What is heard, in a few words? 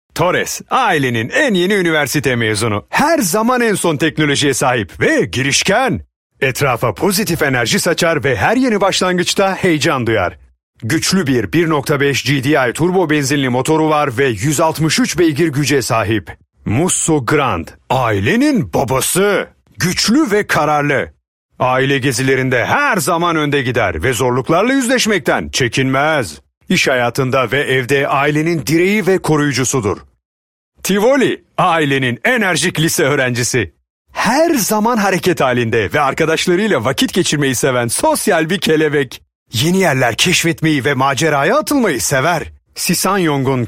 commercial friendly